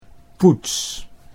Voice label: "poets", Dutch